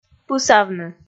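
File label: "пысавны", Komi, female; kpv audio dict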